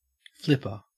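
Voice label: "flipper", Australian English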